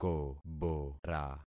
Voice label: "kobora", concat syllables; Affixing experiment

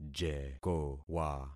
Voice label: "jekowa", concat syllables; Affixing experiment

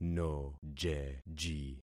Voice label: "nojeji", concat syllables; Affixing experiment

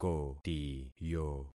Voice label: "kotiyo", concat syllables; Affixing experiment

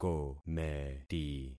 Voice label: "kometi", concat syllables; Affixing experiment

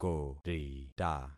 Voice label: "korita", concat syllables; Affixing experiment

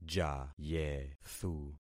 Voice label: "jayethu", concat syllables; Affixing experiment

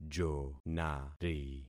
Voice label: "jonari", concat syllables; Affixing experiment

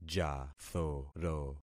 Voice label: "jathoro", concat syllables; Affixing experiment

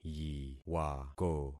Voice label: "yiwako", concat syllables; Affixing experiment

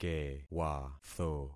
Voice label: "kewatho", concat syllables; Affixing experiment

